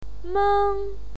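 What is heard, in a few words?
"meng" first tone, pinyin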